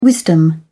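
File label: "wisdom", English, British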